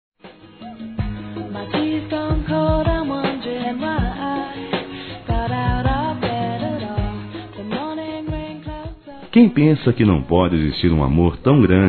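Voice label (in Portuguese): MÃE PAI, Masculino; Dia das Mães; Telemensagens 2017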